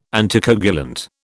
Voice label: "anticoagulant", British